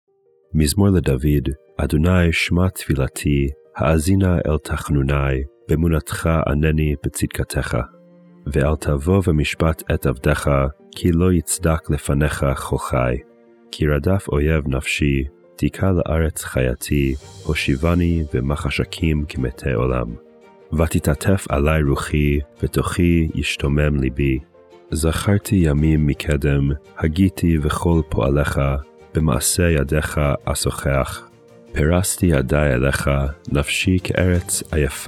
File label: poetry and prayer psalm hebrew